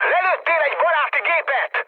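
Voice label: Hungarian player killed ally mood med, speech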